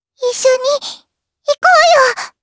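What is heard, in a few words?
voice Skill, voices heroes, Japanese; Lavril; kingsraid; jp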